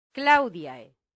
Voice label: "claudiae", latin; santillana